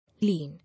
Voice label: "Lean", English